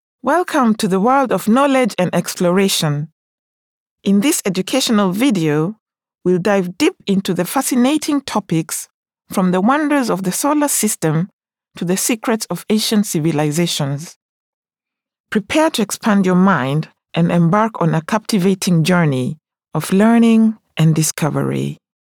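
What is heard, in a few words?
English, African, female, educational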